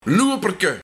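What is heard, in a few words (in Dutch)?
"Loewperke", Luik; 2. Looprekje voor kinderen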